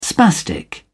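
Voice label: "spastic", British English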